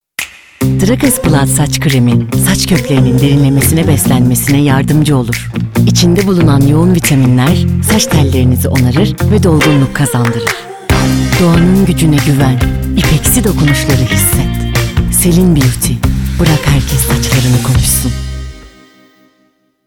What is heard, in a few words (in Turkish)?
reklam; selin beauty sac kremi